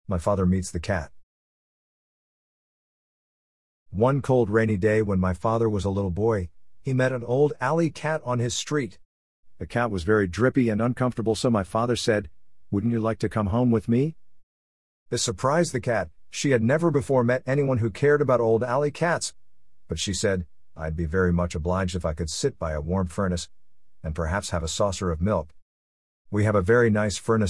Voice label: story